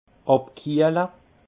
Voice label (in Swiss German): "abkiehle", alsace; vin; rafraîchir